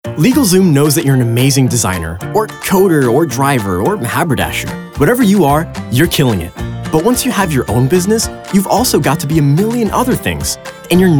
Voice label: Commercial